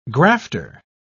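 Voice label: "grafter", English, British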